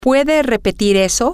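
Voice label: can you repeat that, Spanish, female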